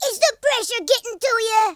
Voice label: "missed", American Wrestler

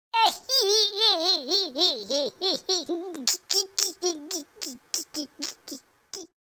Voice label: happy

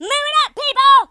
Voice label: "come on then", Drill Sergeant; speech

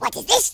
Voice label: uh oh; speech

